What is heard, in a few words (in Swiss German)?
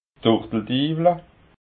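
"turteldiwla", Alsatian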